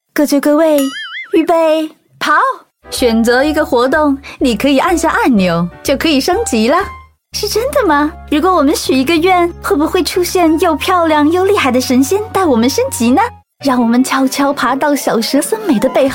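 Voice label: Mandarin Toys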